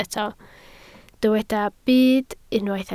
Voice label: "byd", Welsh